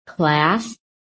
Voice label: "CLASS", american; PHONETIC

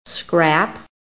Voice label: "SCRAP", american; PHONETIC

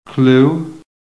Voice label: "CLUE", american; PHONETIC

